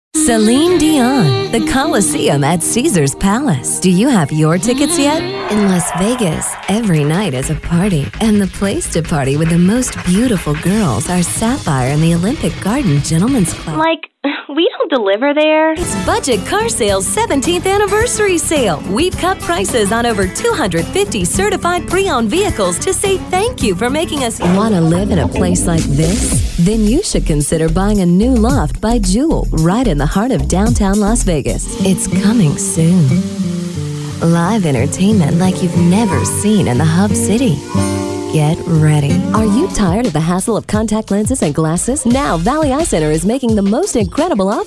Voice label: Commercial Female; commercial voiceover

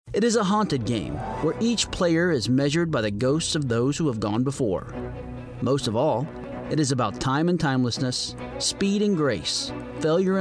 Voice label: Narration Male

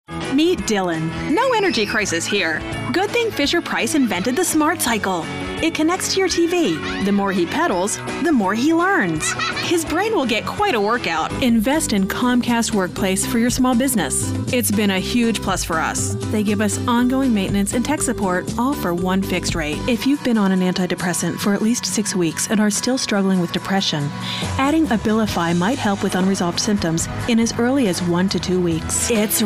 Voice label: Commercial Female; commercial voiceover

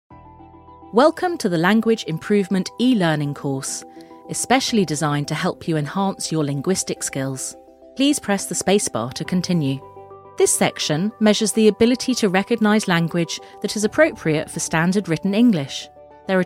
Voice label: eLearning British Female; Narration, eLearning, International Voiceover, British

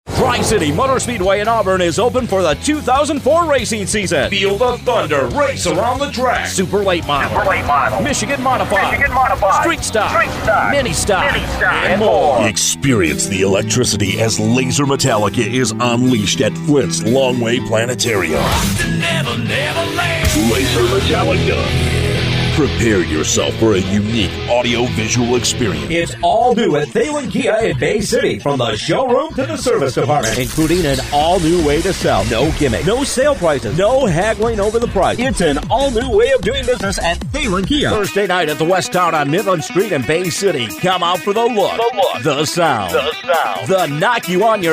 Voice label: Commercial Male; commercial voiceover

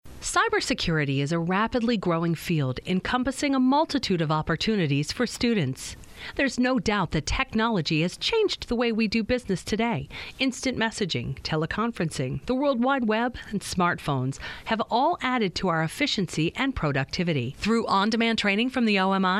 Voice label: Narration Female; commercial voiceover

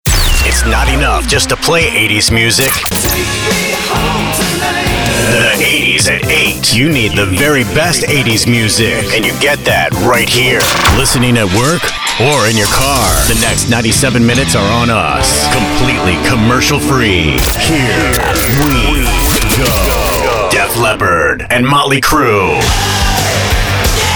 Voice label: Imaging Rock Male; commercial voiceover

